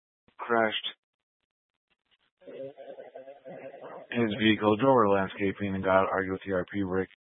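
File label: Incident Tactical, scanner audio recording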